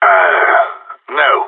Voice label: negative, radio; GSG9